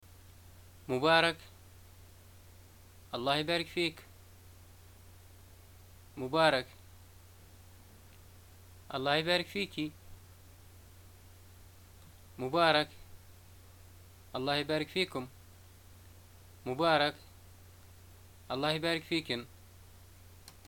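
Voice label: "greeting new things", Libyan Arabic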